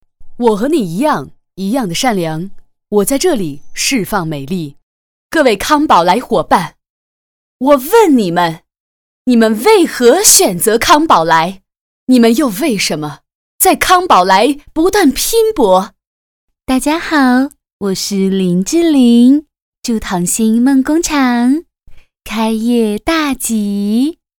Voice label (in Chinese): 女, 人物模仿; 李宇春芈月容嬷嬷